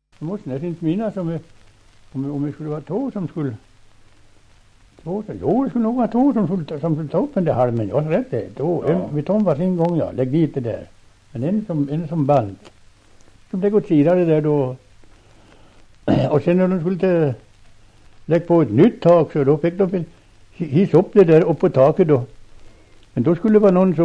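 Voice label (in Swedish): Västerfärnebo Västmanland, dialekter; Folkminnen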